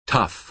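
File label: "tough", American English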